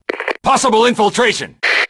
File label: dogs, grunt; svencoop; st allies; nimble grunt